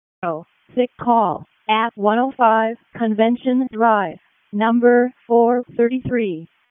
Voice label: EMS ALERT, p25